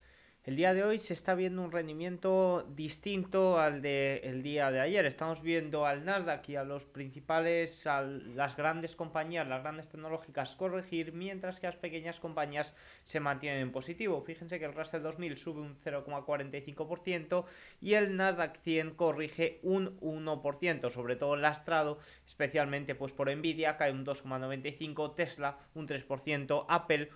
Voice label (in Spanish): radio; russell 2000 y corrige el ndx con las grandes tecnologicas a la cabeza